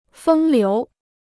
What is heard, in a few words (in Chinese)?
"风流", Chinese